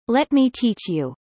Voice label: fast